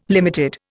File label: "limited", Indian